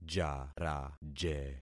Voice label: "jaraje", concat syllables; Affixing experiment

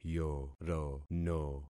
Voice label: "yorono", concat syllables; Affixing experiment

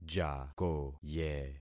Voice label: "jakoye", concat syllables; Affixing experiment

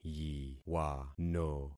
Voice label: "yiwano", concat syllables; Affixing experiment

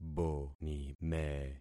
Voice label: "bonime", concat syllables; Affixing experiment

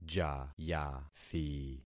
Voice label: "jayathi", concat syllables; Affixing experiment

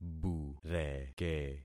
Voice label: "bureke", concat syllables; Affixing experiment

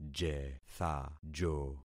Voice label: "jethajo", concat syllables; Affixing experiment

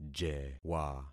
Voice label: "jewa", concat syllables; Affixing experiment

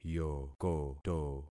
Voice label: "yokoto", concat syllables; Affixing experiment

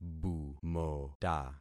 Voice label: "bumota", concat syllables; Affixing experiment

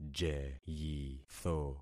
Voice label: "jeyitho", concat syllables; Affixing experiment

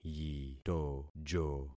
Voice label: "yitojo", concat syllables; Affixing experiment

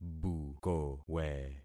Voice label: "bukowe", concat syllables; Affixing experiment

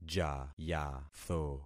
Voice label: "jayatho", concat syllables; Affixing experiment

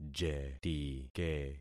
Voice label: "jetike", concat syllables; Affixing experiment

